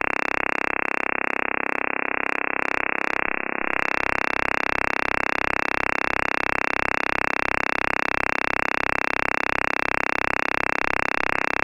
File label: french oth; iarums